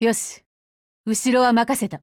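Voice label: COMBINE Japanese; Beowulf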